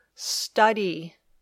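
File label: "study", English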